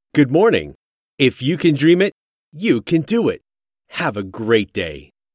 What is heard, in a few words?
Male; achieve